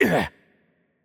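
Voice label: Hurt Light, Korean; ko KR; bloom minigame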